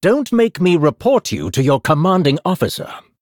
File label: Blood Elf Emote Threaten; Lorthemar